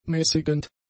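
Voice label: "mäßigend", German; adjectives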